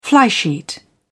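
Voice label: "flysheet", British English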